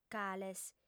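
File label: "cales", lingua sarda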